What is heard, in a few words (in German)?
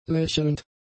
"lächelnd", German; adjectives